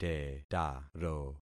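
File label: "tetaro", concatenated syllables; Affixing experiment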